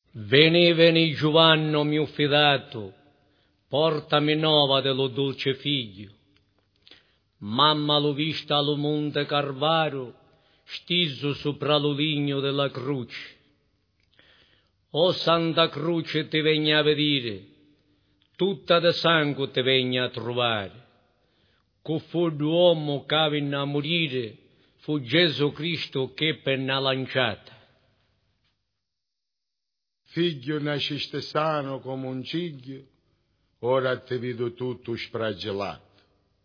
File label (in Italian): passione e morti recitato